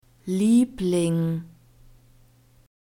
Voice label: "liebling", german; love